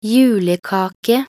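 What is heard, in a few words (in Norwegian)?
"julekake", Norwegian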